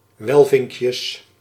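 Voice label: "welvinkjes", Dutch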